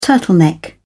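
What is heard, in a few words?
"turtleneck", British English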